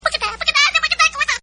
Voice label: Cartoon Pygmie, Cartoon Voice; Cartoons